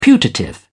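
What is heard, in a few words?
"putative", British English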